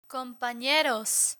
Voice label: "companeros", Spanish